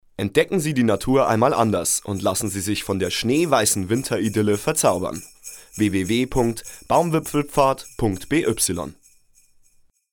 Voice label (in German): Werbung Reminder; Erlebnis Akademie